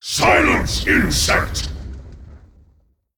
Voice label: Male Elemental; Ragnaros; Malfurion Mission7 Emote Response